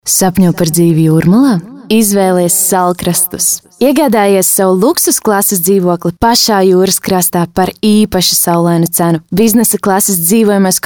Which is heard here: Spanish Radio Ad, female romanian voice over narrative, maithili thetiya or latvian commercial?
latvian commercial